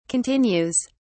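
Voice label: "continues", British English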